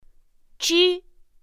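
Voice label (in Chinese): "zhi", Mandarin Chinese; pinyin2; pinyinji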